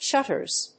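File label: "Shutters", English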